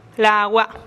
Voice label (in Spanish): "lagua" mi cara, teotitlan